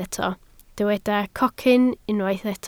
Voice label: "cocyn", Welsh